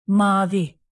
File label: "mavi", Turkish, native